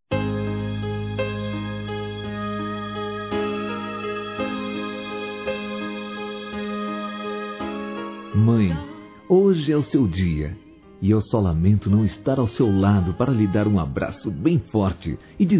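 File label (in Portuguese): MÃE DISTANTE, male, Telemensagens Dia das Mães; Masc; Telemensagens 2017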